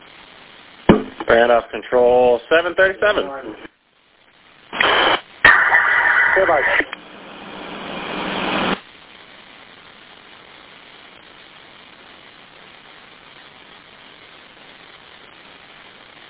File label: Police Radio